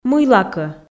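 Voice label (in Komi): "мыйлакӧ", Komi, female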